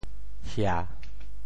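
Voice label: "hia3", Teochew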